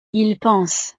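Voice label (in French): "ils pensent", French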